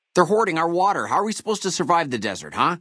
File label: male adult greeting; FNV